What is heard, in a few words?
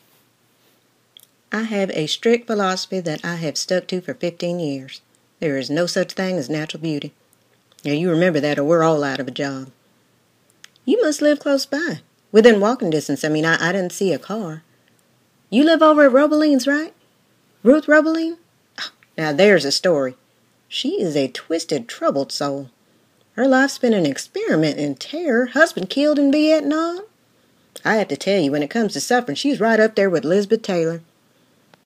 Southern